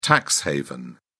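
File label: "tax haven", British English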